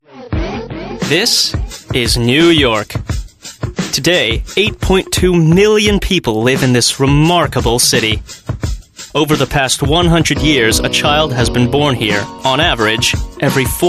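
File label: US Narration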